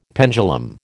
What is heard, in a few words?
"pendulum", American